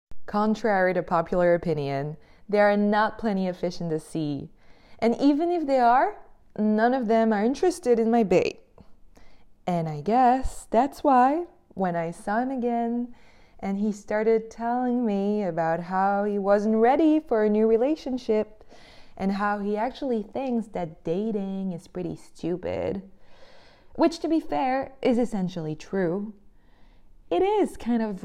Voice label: Thanksgiving monologue; Ford